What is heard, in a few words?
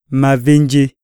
wound, iyasa